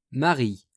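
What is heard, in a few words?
"maris", French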